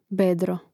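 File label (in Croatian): "bedro", Hrvatski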